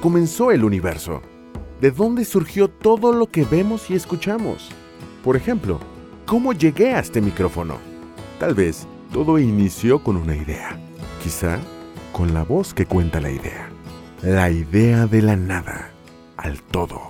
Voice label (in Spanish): Locucion Comercial, Locutor Comercial; VersionMEDIA